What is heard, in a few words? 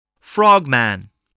"frogman", English voice, spelling